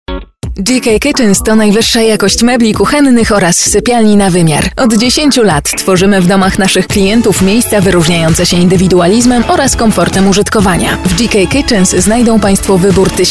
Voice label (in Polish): REKLAMA